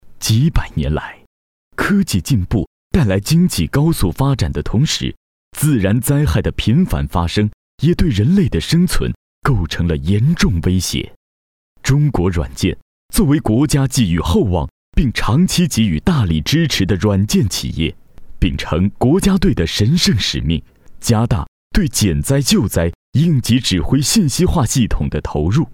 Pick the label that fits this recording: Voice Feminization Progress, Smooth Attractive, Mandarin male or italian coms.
Mandarin male